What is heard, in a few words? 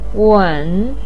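"wen3" Chinese syllable, pinyin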